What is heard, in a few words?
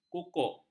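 "kukuk", Malay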